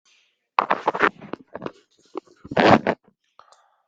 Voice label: voice message; whatsapp